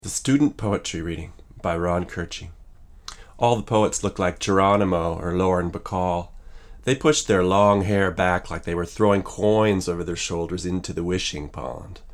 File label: Omni VO, Neumann KM88; Voice English / German / Latin